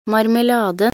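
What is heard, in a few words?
"marmelade", Norwegian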